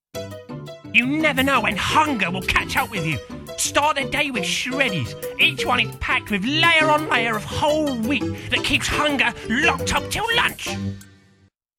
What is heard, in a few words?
Character, Humorous, Cheeky; Shreddies